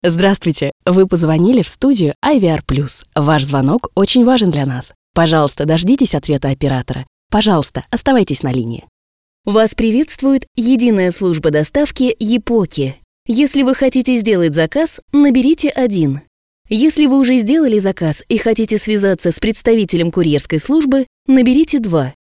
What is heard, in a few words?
voice ru